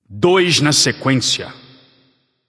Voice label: alert notify kill, portuguese; caster